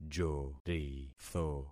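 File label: "joritho", concatenated syllables; Affixing experiment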